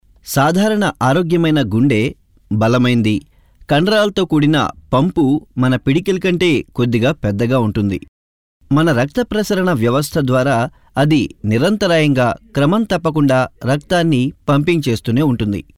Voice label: TELUGU M